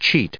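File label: "cheat", US English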